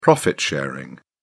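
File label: "profit sharing", British English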